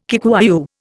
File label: "Kikuyu", Indian